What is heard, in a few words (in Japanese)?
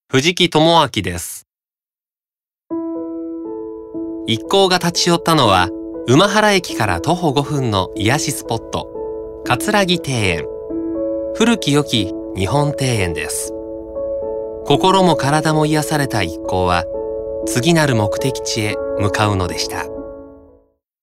ノーマル